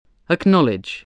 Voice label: "acknowledge", English voice